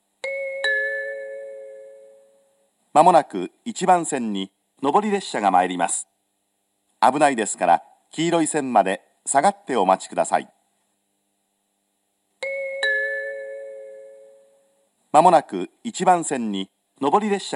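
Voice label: platform 3 approaching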